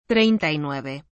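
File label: "treinta y nueve", Spanish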